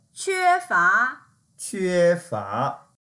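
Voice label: "que1fa2", Mandarin Chinese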